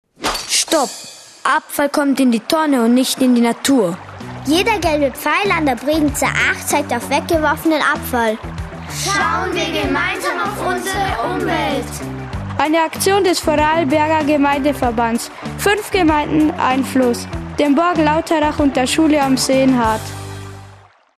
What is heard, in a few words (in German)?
radiospot; gelbe pfeile 5 gemeinden